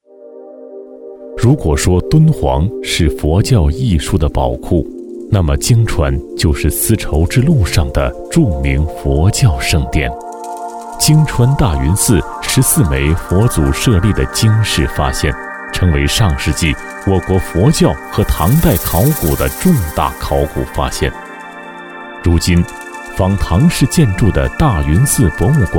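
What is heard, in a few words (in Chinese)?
男 纪录片（人文地理）; 泾川大云寺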